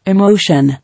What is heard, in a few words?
"Emotion", English